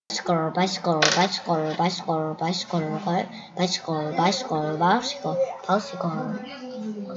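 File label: "bicycle", spoken word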